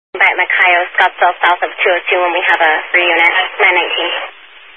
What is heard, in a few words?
Highway Patrol, Phoenix Metro East Area, scanner